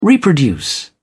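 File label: "reproduce", British English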